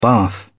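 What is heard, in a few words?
"bath", British English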